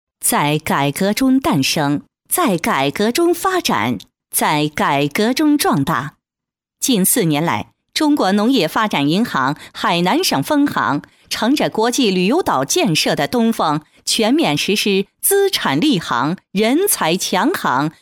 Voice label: female chinese voice over narrative, mandarin